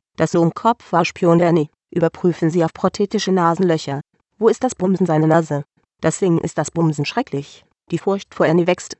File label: Clown Was Ernys Spy Female